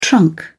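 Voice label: "trunk", British English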